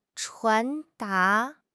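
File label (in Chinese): "传达", Chinese; HSK6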